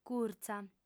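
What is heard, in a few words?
"curtza", Sardinian, female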